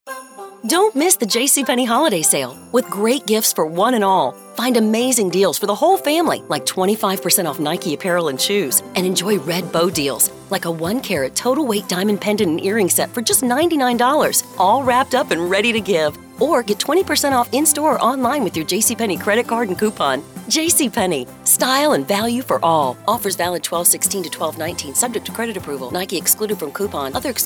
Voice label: radio; JCP; Muse Holiday Sale